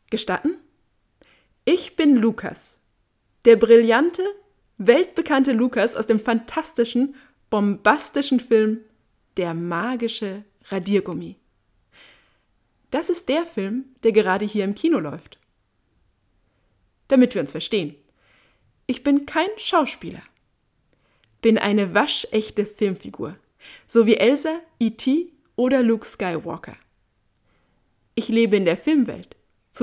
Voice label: Studio microphone RAW